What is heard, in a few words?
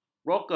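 "broker", Malay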